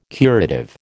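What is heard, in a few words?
"curative", American English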